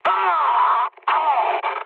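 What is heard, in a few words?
French damaged pilot mood med; War Thunder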